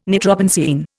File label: "nitrobenzene", Indian